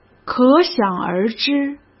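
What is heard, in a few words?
"可想而知" Mandarin Chinese; hsk; chinese-flashcards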